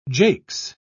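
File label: "jakes", British English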